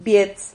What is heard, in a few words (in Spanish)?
"viets", Silabas